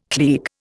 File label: "klieg", Indian